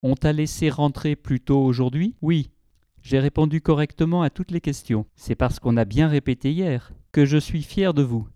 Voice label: dialog